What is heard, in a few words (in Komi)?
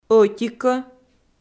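"ӧти-кӧ", Komi, female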